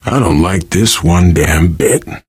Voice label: reaction apprehensive, survivor voice; source engine; left 4 dead 2